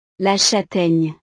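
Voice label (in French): "la chataigne", French; frutas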